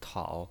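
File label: "tao", pinyin voice, third tone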